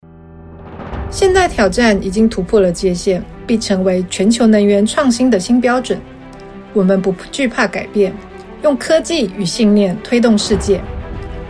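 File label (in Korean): 광동어 (대만) 차분/편안; Voice actor sample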